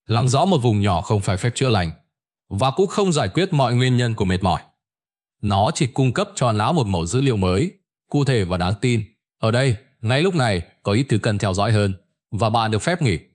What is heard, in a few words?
narration